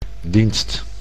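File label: "dienst", Dutch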